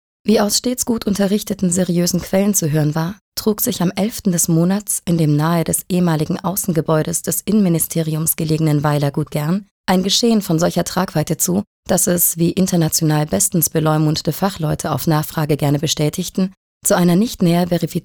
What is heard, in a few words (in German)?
Trocken Neutral